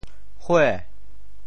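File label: "hue2", Teochew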